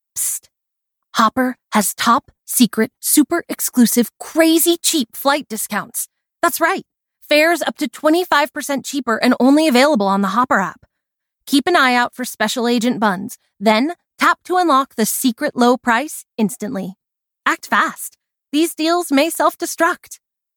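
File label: Commercial, voice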